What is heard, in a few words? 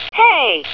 "hey", Battle Girl